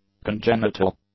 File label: "congenital", English; adjectives